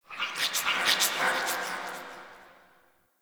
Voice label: Sentient Lair Spirit Chatter, Ambience; Warframe